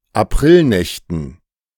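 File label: "Aprilnächten" German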